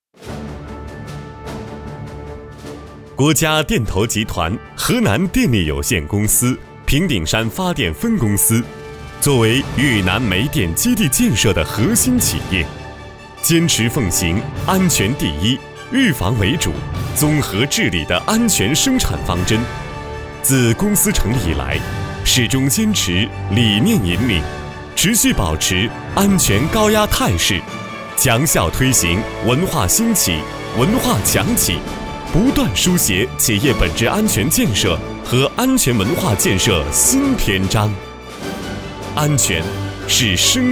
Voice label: 男, 专题, 电力公司, 大气沉稳

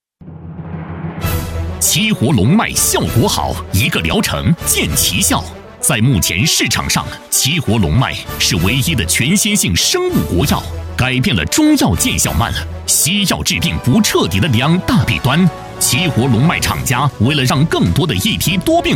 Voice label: 男 医药炒作; 七活龙脉

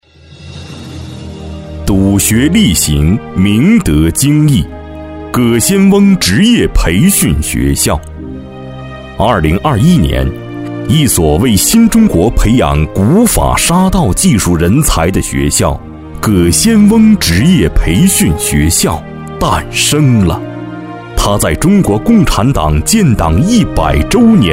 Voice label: 男,专题; 葛仙翁心路

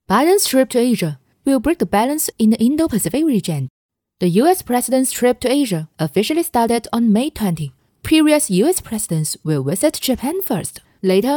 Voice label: 女 英语新闻专题

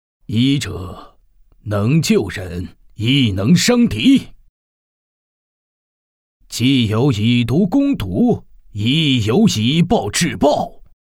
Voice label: 男, 老年, 严厉

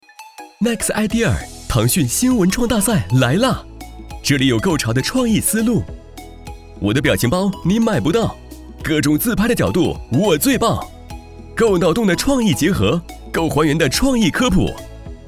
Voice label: 男 广告 活力 年轻; 腾讯

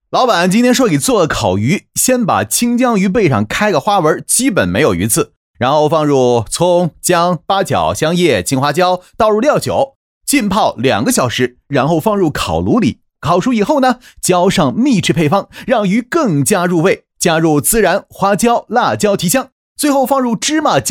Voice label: 男 抖音 烤鱼

